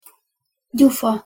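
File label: "gyufa", Hungarian pronunciation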